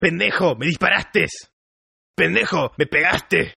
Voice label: Toughguy Shot Spanish, Tough Hispanic Male; Tough Hispanic Male Saying "You Bastard You Shot Me!" In Spanish-2 Takes; Attitude Sound Effects